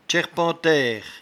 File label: "tchairpentaire", patois jura